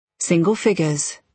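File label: "single figures", British English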